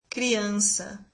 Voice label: "child", brazilian